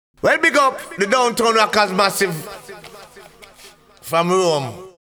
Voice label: big up downtown massive from rome (echo); DOWNTOWN JINGLES